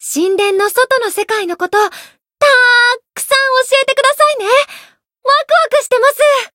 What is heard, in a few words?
Voice story jp